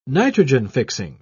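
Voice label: "nitrogen-fixing", British English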